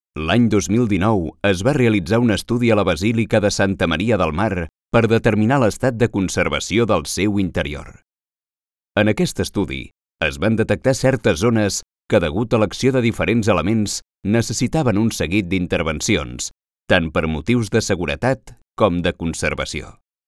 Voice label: CATALAN MALE CORPORATE

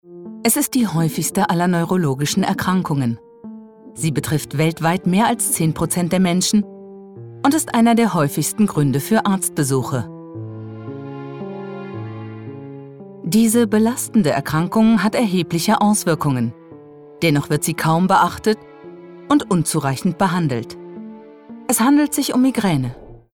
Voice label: E-learning, GERMAN FEMALE

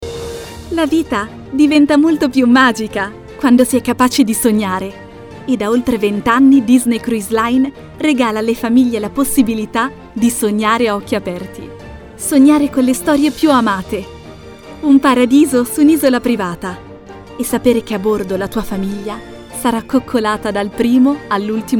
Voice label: commercial, italian, female

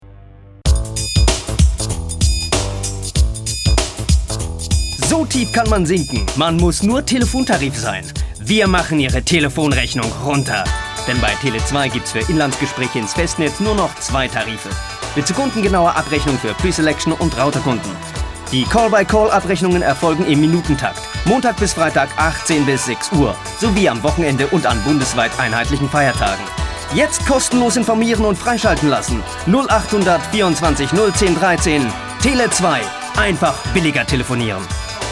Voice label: commercial, German, male

